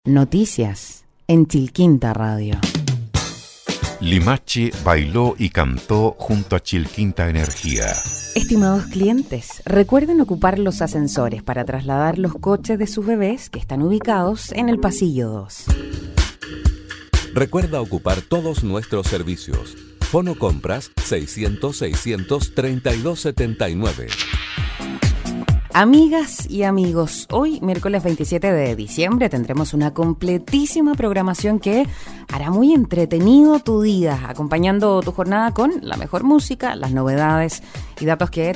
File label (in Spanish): demo corporativa